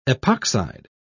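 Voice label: "epoxide", British English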